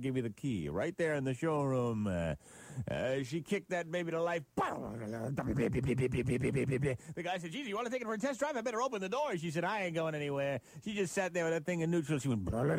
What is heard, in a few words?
Honda commercial; Greaseman